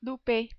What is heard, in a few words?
"louper", French